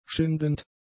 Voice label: "schindend", German; adjectives